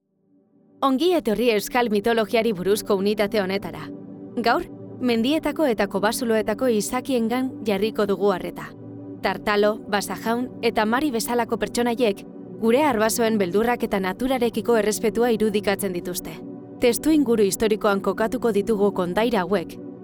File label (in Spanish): E Learning Euskera